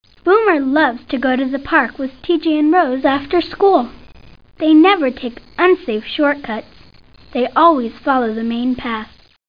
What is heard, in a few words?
Narrator; T.J. Finds a Friend